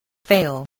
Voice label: "fail", spelling